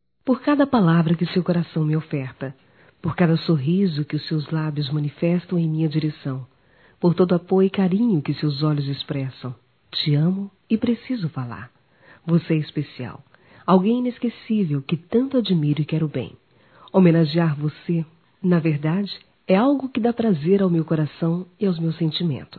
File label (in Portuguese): Dia da Mulher, fem; Dia internacional da mulher; Telemensagens 2017